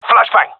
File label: throwing flashbang, gendarmerie male; vo